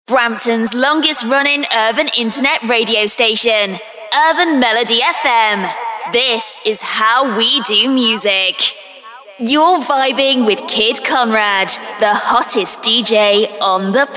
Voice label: British female dj drops